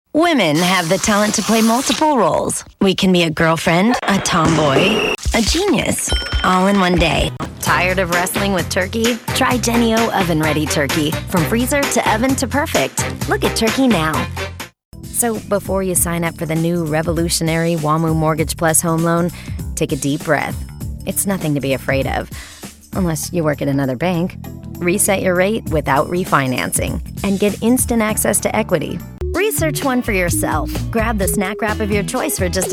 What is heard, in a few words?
commercial